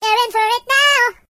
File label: "You'll regret that", Singer; hedgewars